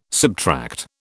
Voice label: "subtract", British